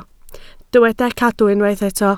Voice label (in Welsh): "cadw" Welsh